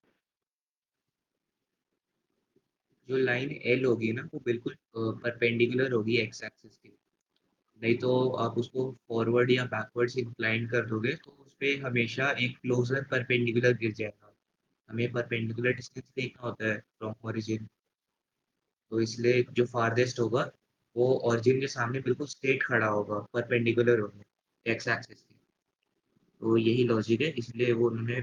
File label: voice message; Straight lines